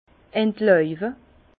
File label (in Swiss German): "entlauwe", Bas Rhin; vin; effeuiller